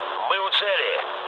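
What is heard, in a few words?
near waypoint, mood low, Russian; War Thunder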